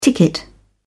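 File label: "ticket", British English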